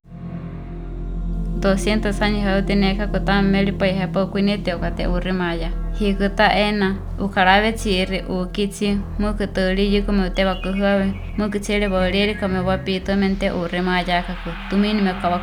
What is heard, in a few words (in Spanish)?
huichol; Trata Laboral